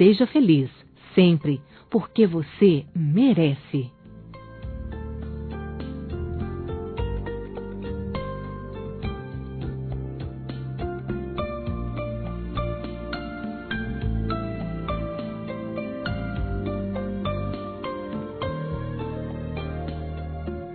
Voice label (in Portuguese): Bom Final de Semana Feminino; Telemensagens; Românticas